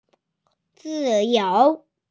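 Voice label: "freedom", Mandarin Chinese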